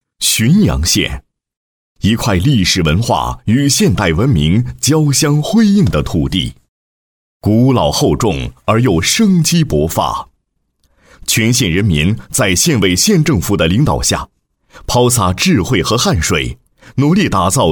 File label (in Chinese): 男 政府工作 人民政协事业工作配音; 旬阳县